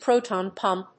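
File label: "proton pump", English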